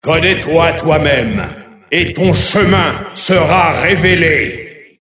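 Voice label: approach, French; God of War Collection Volume 2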